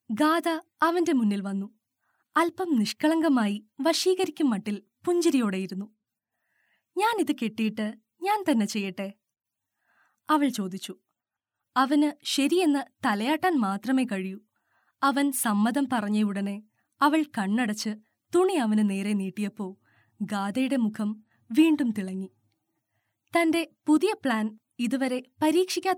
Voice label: female malayalam voice over narrative

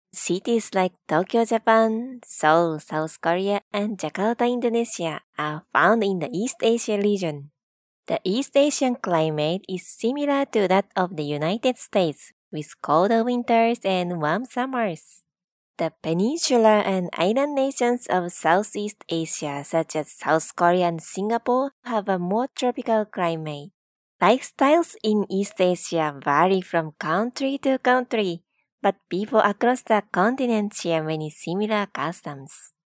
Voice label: female japanese voice over english

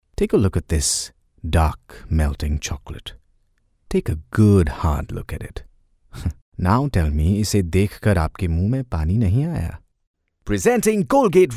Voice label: male hinglish voice over talent character

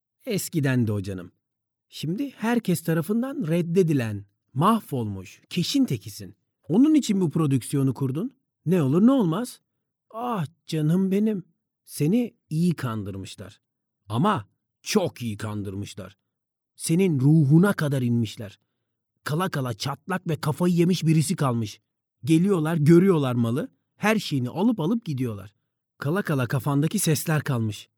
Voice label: male turkish voice over talent character

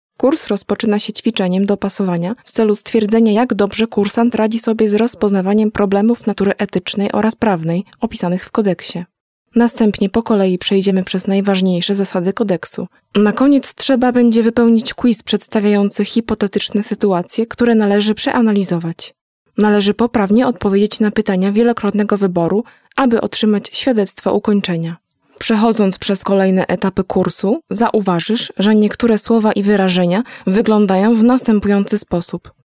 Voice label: female polish voice over narrative

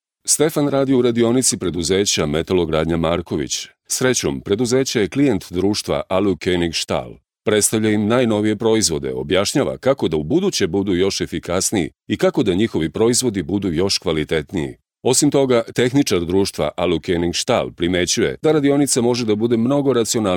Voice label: male serbian voice over talent narrative

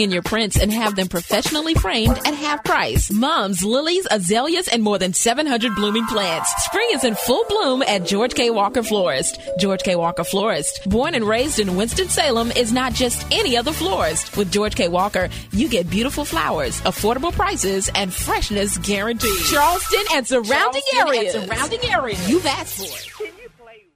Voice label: female american voice over sales; english american

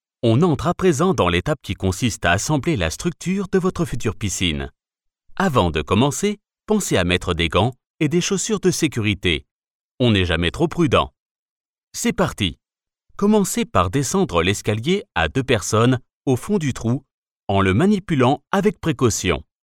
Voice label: male french voice over upbeat, france

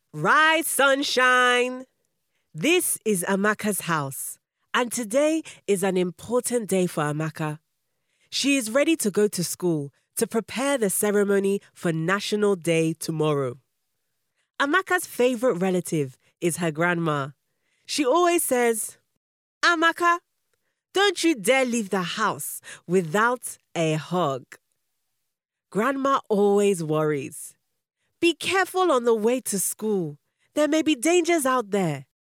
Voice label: female african voice over character, english

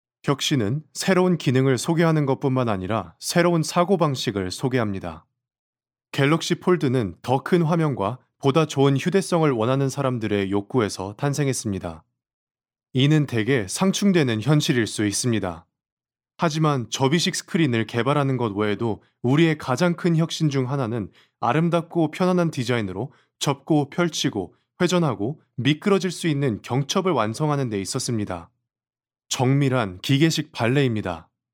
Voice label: male korean voice over narrative

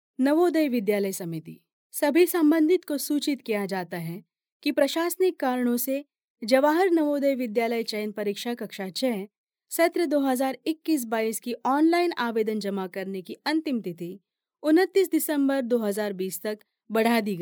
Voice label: female hindi voice over narrative

